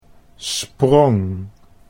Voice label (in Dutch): "sprong", Dutch